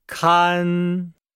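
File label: "kan", Chinese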